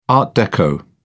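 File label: "art deco", British English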